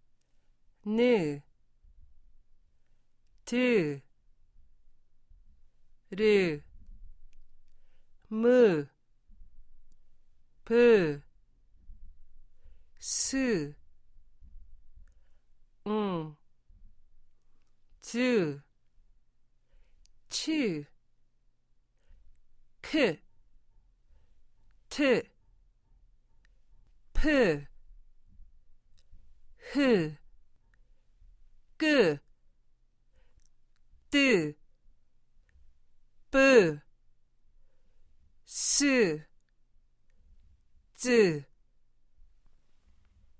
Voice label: Bonus Hangeul Consonants, korean